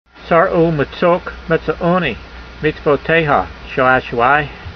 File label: voice, hebrew language; Psalm 119:143; tsar-oo'matsok metsa'oonee, meetsvoteyha sha'ah'shu'ai